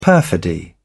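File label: "perfidy", British English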